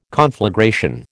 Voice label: "conflagration", American